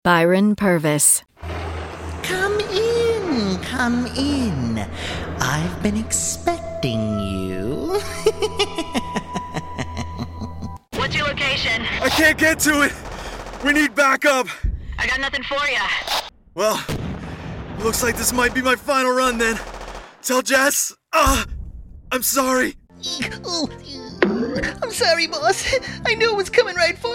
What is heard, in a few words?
animation